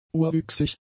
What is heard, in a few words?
"urwüchsig", German; adjectives